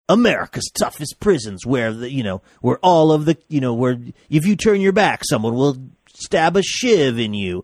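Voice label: saying america's toughest prisons where tv voiceover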